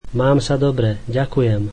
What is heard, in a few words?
I'm good thank you, slovak